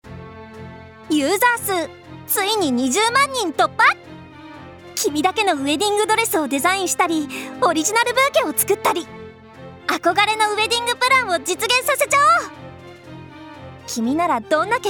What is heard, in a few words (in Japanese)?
ナレーション